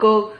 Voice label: "cog", Silabas

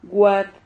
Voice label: "guad", Silabas

